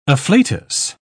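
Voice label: "afflatus", English, uk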